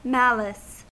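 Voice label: "malice", US English; pronunciations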